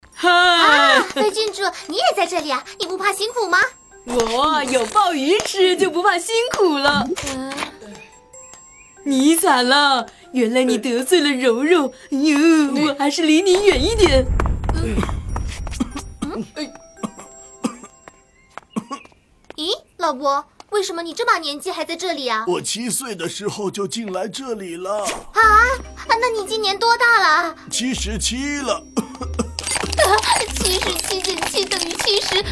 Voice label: 国语女声配音; 甜心格格片段; 儿歌

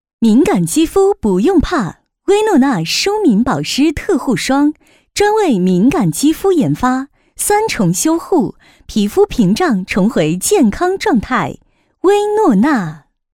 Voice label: 女 化妆品广告

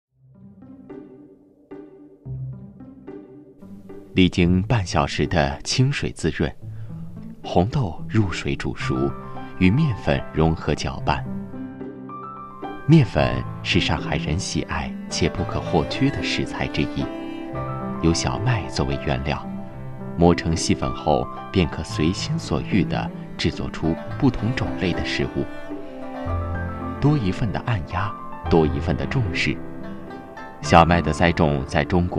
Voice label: 男 舌尖美食风格柔版

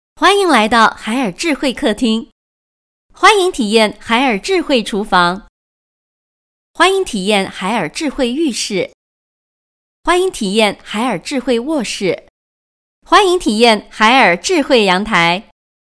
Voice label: 女, 播报; 欢迎来到海尔

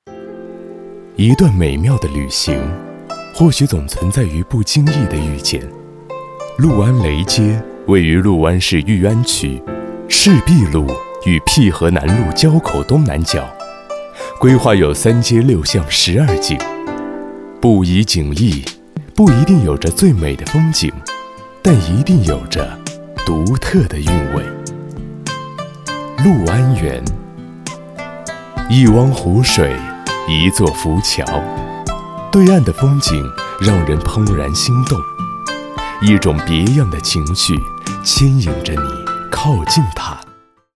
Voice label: 宣传片, 舒缓; 旅行

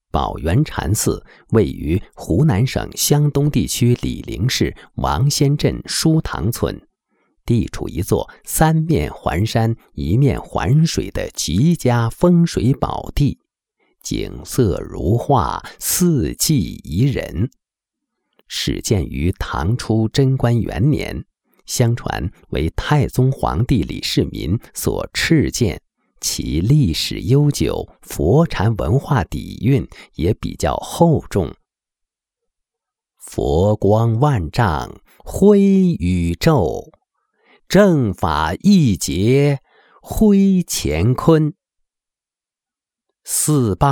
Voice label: 禅寺, 国语男声配音; 宝元禅寺

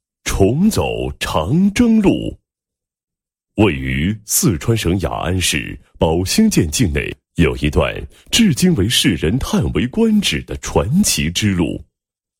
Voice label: 男, 地区旅游宣传; 雅安市宝兴县重走长征路宣传配音

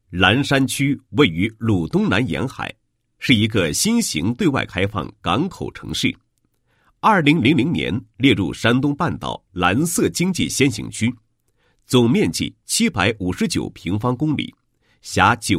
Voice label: 男 工作汇报 实行最严格水资源管理制度情况汇报, 配音案例

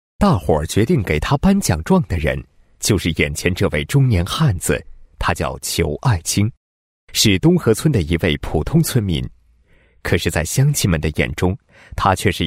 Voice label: 男 人物; 普通村民仇某事迹

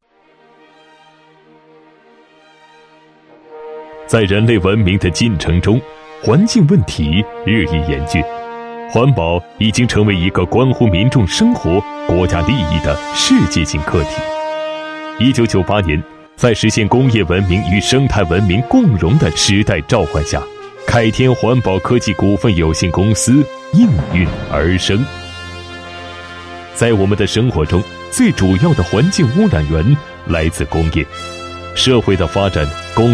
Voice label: 男 大气 环境治理, 国语男声配音

